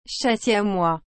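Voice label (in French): "chat siamois", French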